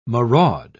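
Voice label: "maraud", English, British English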